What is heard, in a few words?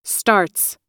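"starts", American English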